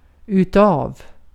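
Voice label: Swedish vocabulary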